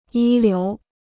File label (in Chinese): "一流", Chinese